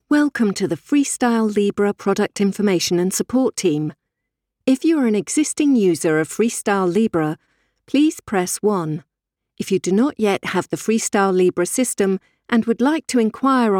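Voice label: interactive voice response Sample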